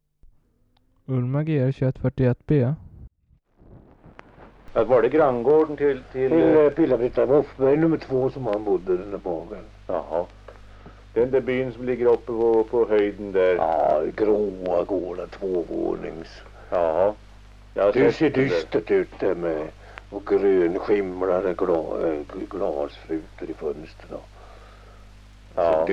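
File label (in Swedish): Valbo Gästrikland, dialekter; Folkminnen; Svenska sagor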